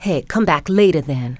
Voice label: later, female; kingpin; yolanda

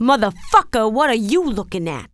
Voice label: cuss, female, profanity; kingpin

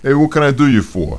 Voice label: male neutral talk; kingpin loc

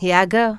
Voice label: here go, female; kingpin; yolanda

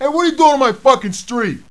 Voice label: cuss, profanity, male; kingpin

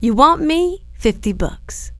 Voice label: female 50 bucks; kingpin; hiredgirl

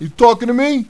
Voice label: cuss, profanity, male; kingpin loc

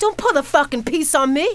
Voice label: piece, female; kingpin; yolanda

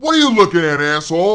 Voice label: cuss, profanity, male; kingpin

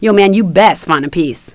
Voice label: find piece, female; kingpin; lisa

